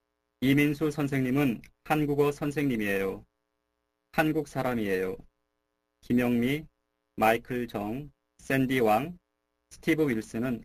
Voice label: Korean Narration; DLI; Lesson 1 - Narration